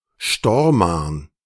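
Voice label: "Stormarn", German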